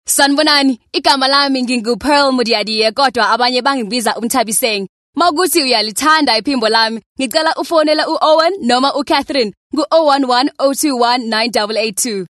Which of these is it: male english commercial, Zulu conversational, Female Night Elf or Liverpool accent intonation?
Zulu conversational